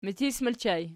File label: "mitis", Archi